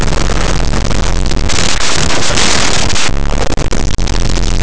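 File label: "musical", Spanish; laboratori fonetica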